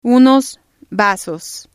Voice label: unos vasos, Spanish